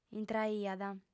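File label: "intraiat", female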